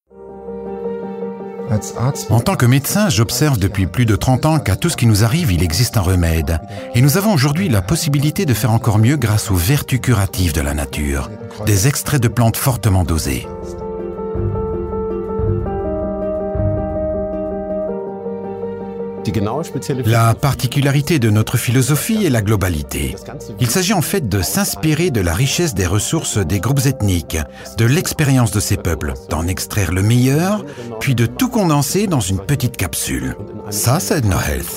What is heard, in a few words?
Corporate; ETHNO-HEALTH